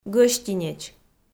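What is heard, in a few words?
"гӧстинеч", Komi, female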